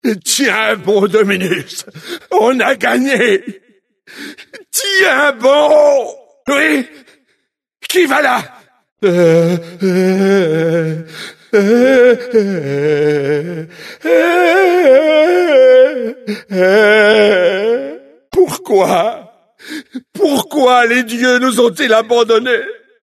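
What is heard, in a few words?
path, French; God of War Collection Volume 2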